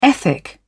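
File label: "ethic", British English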